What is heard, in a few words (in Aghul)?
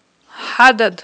"хIадад", aghul